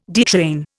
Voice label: "detrain", Indian